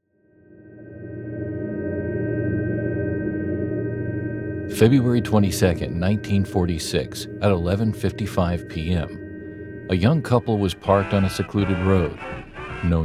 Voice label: Podcast Narration